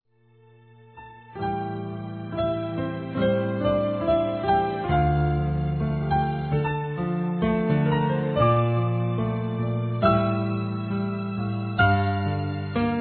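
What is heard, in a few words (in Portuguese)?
Não expressar tudo que sinto, Desculpas, Telemensagens, female; Telemensagens 2017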